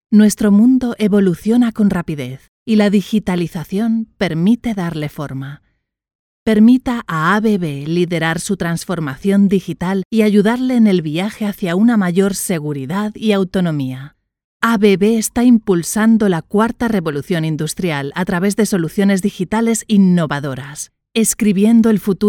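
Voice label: Corporate Transforming your world digitally voice over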